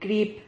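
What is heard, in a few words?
"crip", Spanish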